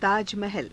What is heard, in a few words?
"taajamahala", Hindi